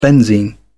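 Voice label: "benzene", English, British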